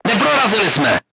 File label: voice message armor not pierce, crew tanks czech